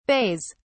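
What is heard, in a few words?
"bays", English, British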